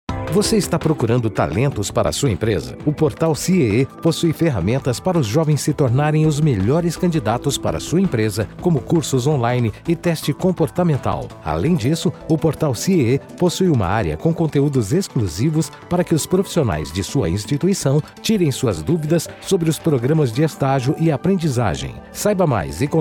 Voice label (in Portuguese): Boletim Institucional; CIEE; Diferenciais de nosso Portal